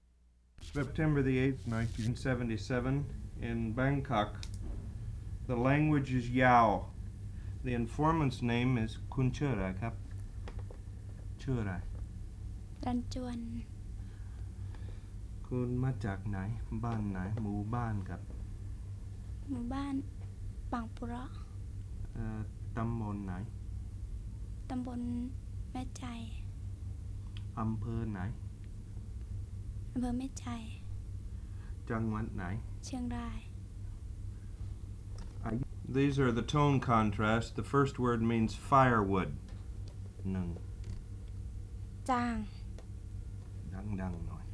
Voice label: Yao tones

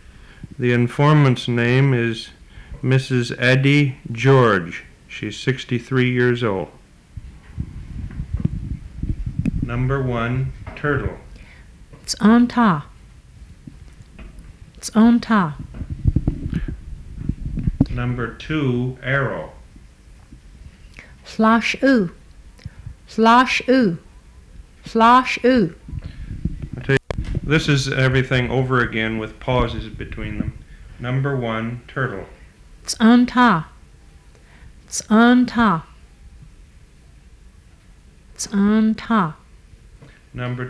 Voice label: Yuchi

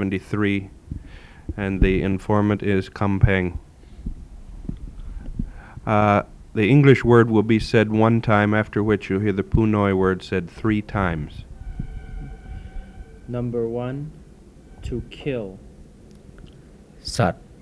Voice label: Phunoi Tone

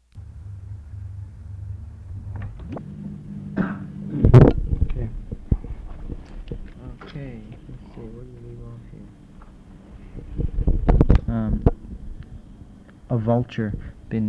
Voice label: Phunoi

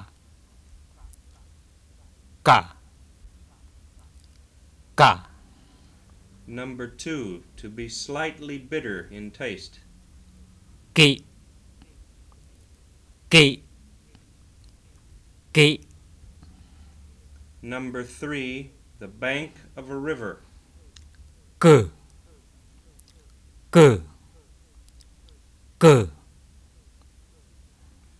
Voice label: Sgau Karen Vowel Contrast

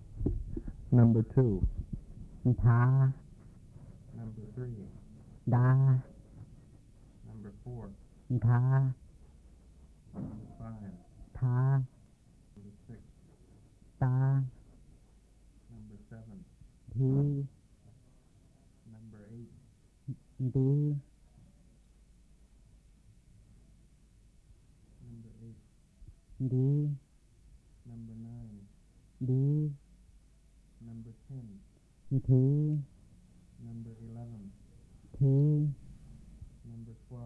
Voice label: White Miao

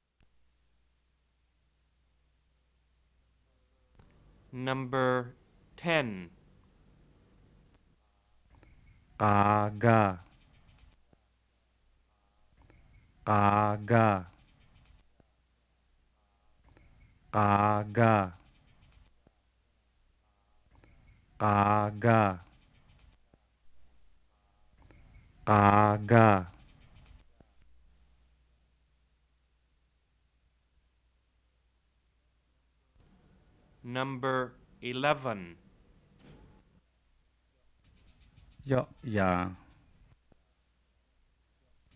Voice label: Lahu Na Tones

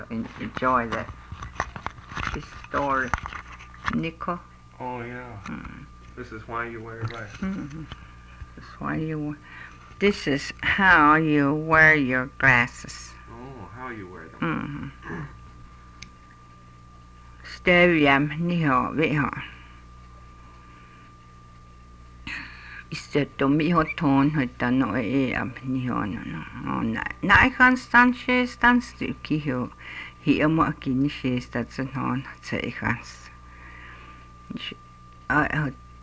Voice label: Cheyenne stories

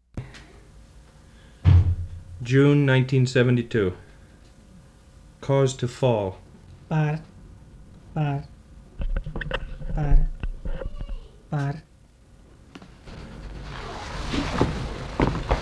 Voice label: Nepali Consonants

